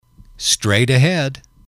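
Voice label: "straight ahead", English, male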